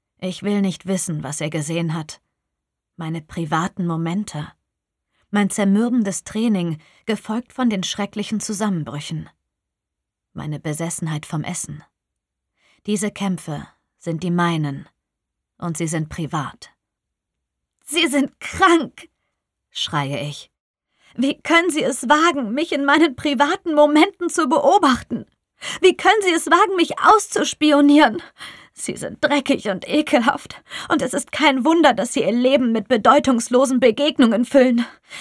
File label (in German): Hoerbuch hysteric